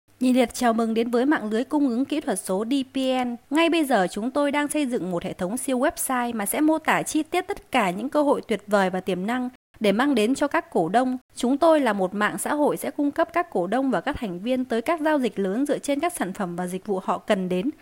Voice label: Vietnamese, Female

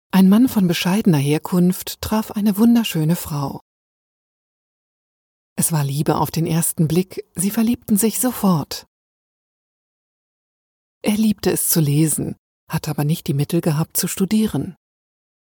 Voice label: German, Female

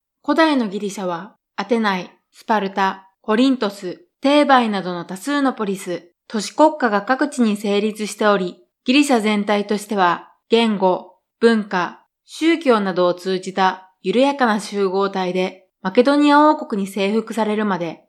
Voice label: Japanese, Female

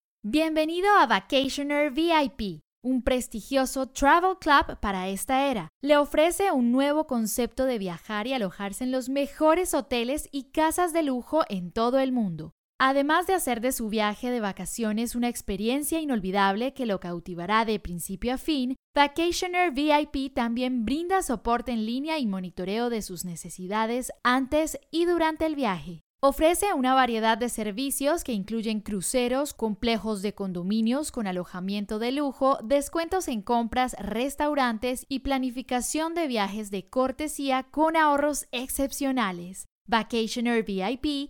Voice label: Spanish (LA), Female

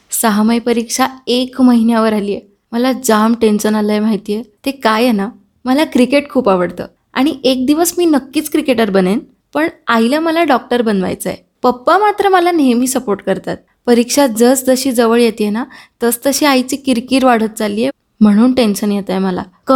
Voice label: Marathi, Female

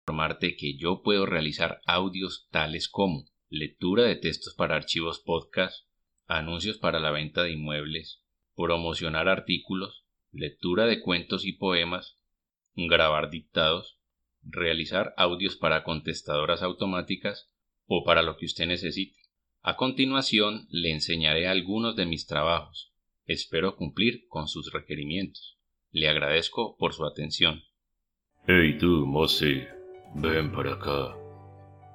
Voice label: Spanish (LA), Male

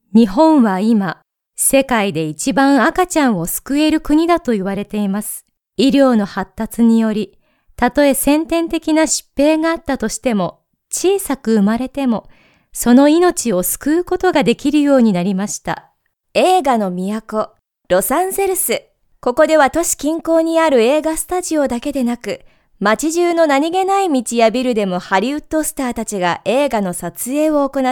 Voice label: Japanese, Female

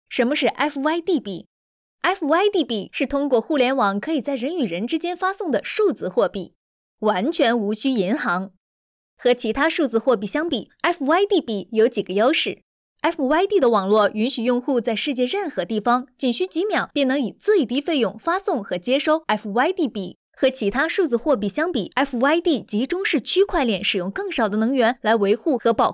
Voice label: Chinese, Female

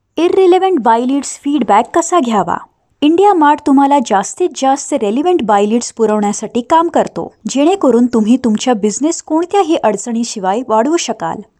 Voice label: Marathi, Female

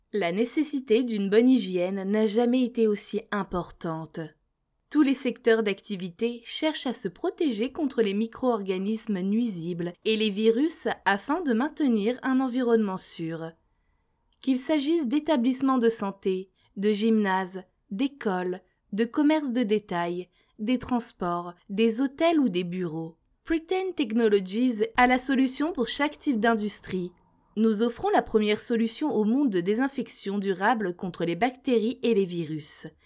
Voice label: French, Female

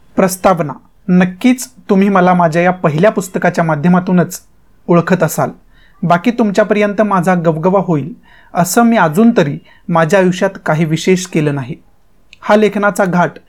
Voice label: Marathi, Male

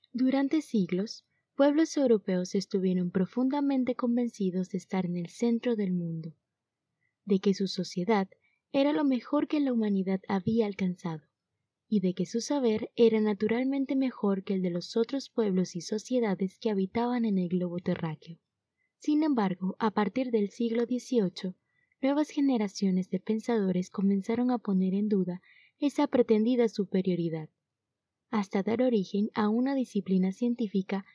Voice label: Spanish (LA), Female

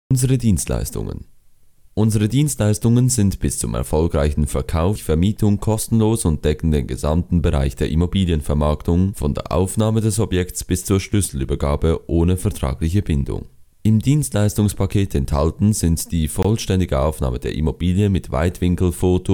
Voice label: German Male

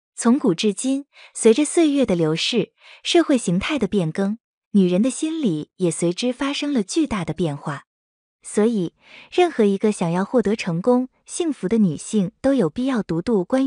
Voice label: Chinese, Female

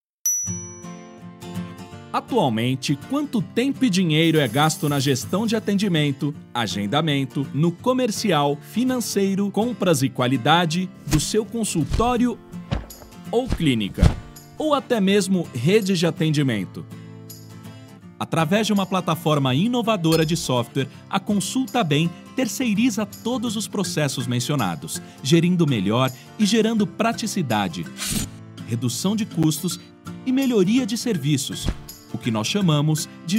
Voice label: Portuguese (Brazil), Male

